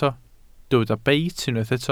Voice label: "beit", Welsh